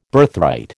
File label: "Birthright", American